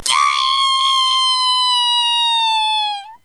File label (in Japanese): 鹿の鳴き声